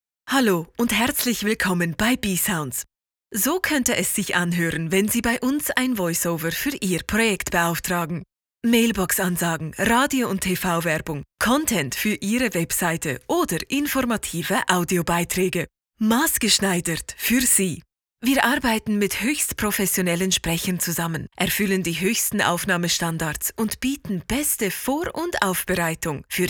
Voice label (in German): DE mit CH Akzent